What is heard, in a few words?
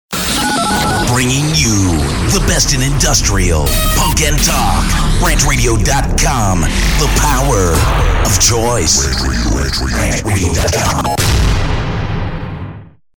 Promo Best In Industrial Punk Talk; RantRadio; RantMedia; Station Promos-Listener Submitted